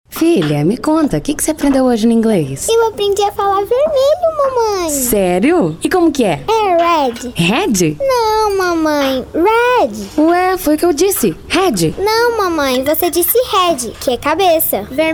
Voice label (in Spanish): LOCUTORA DIALOGOS